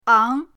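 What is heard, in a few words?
"ang2", Mandarin Chinese, pinyin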